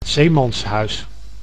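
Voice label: "zeemanshuis", Dutch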